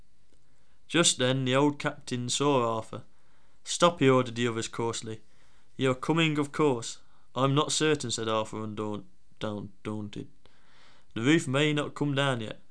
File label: male speaker after one year